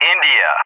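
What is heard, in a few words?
"India", English; JTAC; Alphabet; DCS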